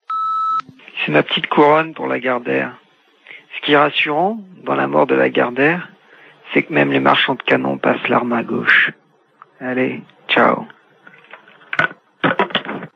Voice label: Mort Lagardere repondeur

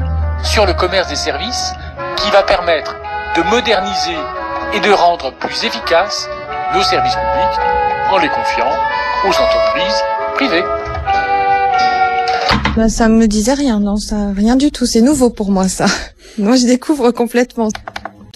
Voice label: AGCS micro trotoir

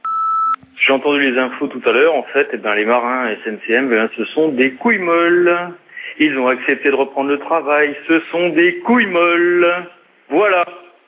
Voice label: repondeur; Fin du conflit SMCM

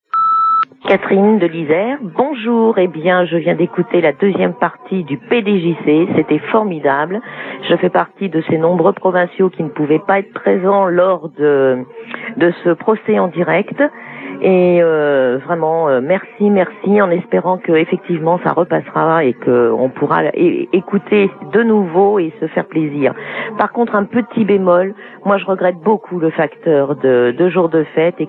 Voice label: Generique repondeur

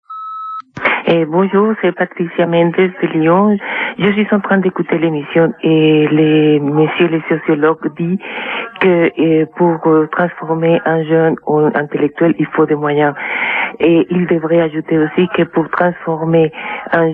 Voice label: Raisons d agir repondeur

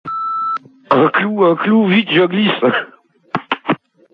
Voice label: Holy Land repondeur

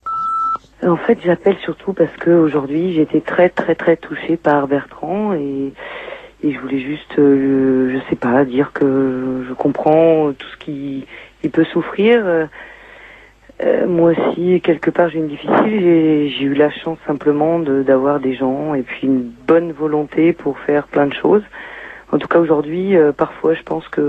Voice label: repondeur

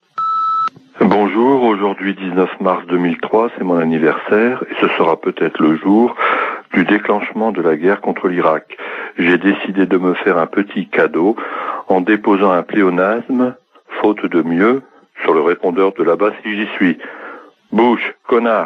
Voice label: Irak Bush repondeur

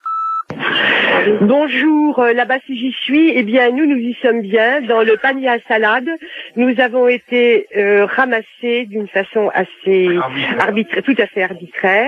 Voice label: Visite Poutine repondeur

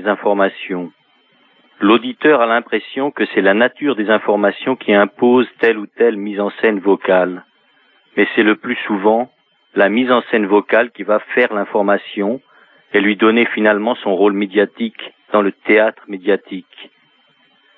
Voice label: Journal radio repondeur

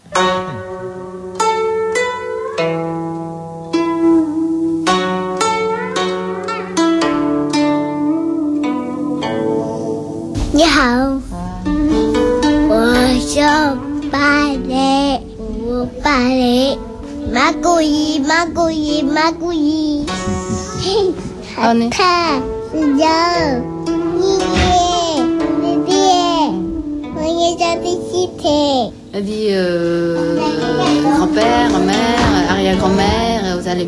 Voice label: enfants chinois; Paris asiatique

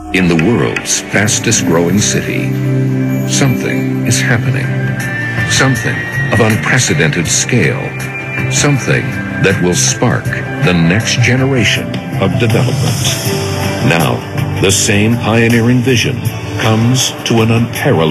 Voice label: Pub en anglais pour Dubai

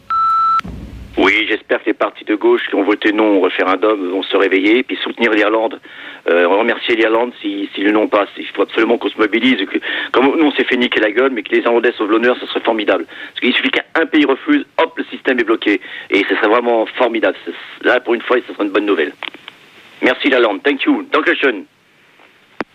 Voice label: repondeur; Soutien au Non de l Irlande

